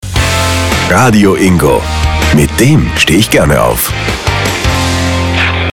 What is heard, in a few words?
Station ID